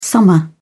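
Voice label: "summer", British English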